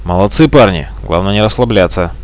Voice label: win, Russian